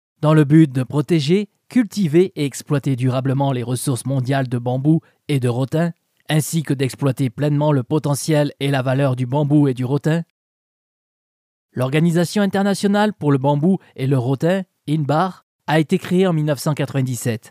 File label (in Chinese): 法语男声